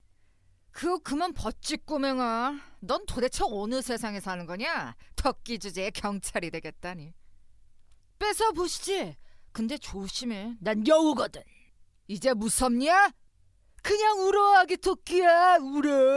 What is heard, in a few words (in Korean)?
13세 불량한 남아